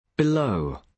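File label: "below", British English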